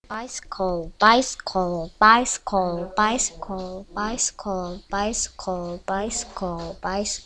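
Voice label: "bicycle", spoken word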